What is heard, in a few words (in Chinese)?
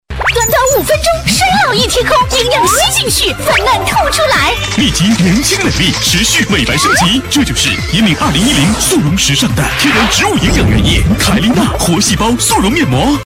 广告 电购; 玲珑瘦